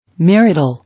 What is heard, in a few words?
"Marital", English